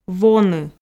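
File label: "воны", Komi, female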